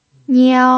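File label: "niao", first tone, Mandarin Chinese pinyin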